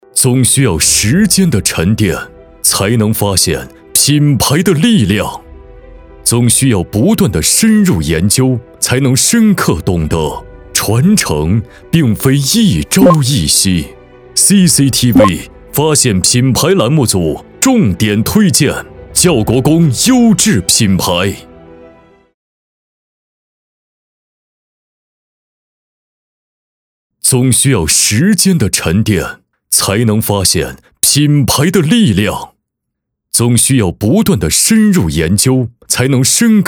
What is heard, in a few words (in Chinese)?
男 酒广告 沉稳大气; 窖国公酒